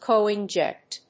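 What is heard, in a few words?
"coinject", English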